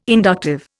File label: "inductive", Indian